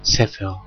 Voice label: book in hebrew